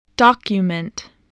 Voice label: "document", spelling

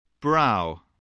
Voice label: "brow", spelling

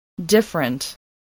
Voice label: "different", english spelling

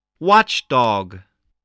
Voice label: "watchdog", spelling

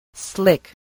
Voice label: "slick", voice spelling

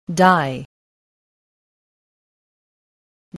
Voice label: "die", english spelling

